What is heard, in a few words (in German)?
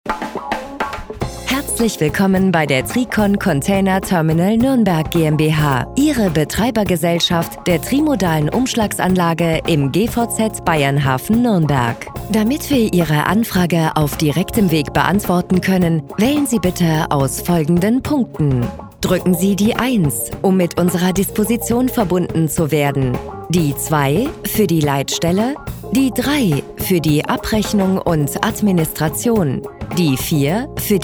Sprachprobe w